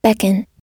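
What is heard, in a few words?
"beckon", American English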